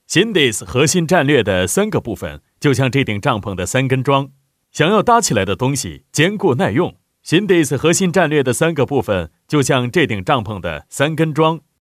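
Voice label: Chinese elearning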